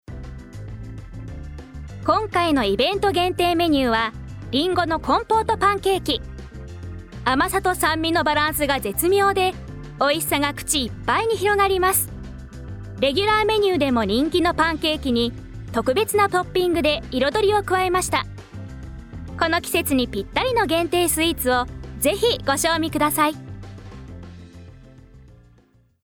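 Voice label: narration; syouhinn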